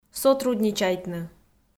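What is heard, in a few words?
"сотрудничайтны", Komi-Zyrian, female; audio dict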